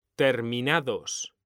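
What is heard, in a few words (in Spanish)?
"terminados", Spanish; mekanta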